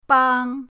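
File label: "bang", Mandarin pinyin, first tone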